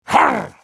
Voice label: spellcast effort, en US; Trundle